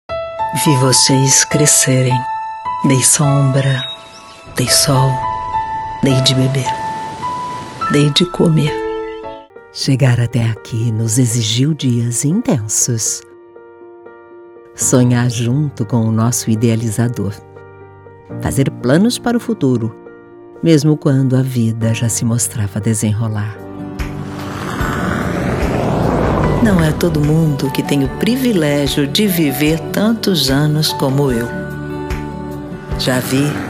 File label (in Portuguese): repertorio voz senior